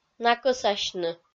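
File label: "накӧсасьны", Komi, female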